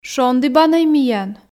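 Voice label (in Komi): "шондібанӧй миян", Komi, female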